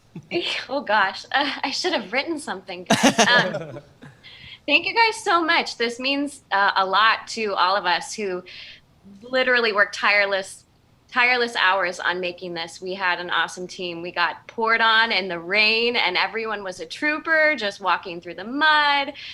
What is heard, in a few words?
acceptance speech